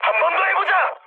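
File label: Korean bomb failure mood high, speech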